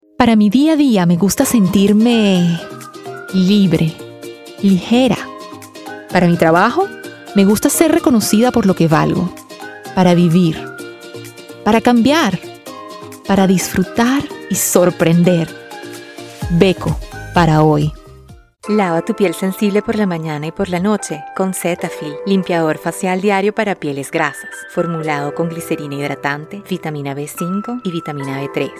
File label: Spanish Commercial